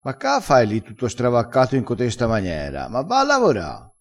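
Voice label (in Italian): "stravaccato", vocabolario dialettale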